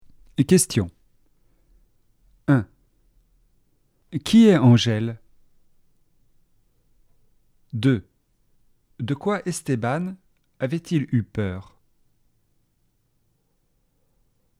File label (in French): questions dialogue